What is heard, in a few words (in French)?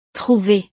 "trouver", frances